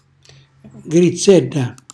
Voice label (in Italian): "grizzella", Calabrese; erba medica spontanea; cucina calabrese; Glossario